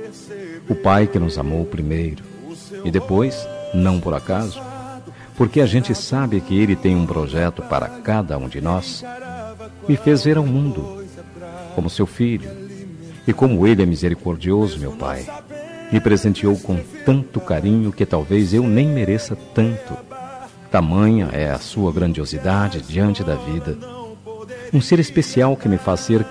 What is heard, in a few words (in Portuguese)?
masc gospel, Dia dos Pais Evangélico – Voz Masculina